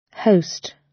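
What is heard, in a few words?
"host", British English; The arts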